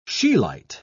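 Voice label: "scheelite", British English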